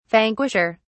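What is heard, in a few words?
"vanquisher", British English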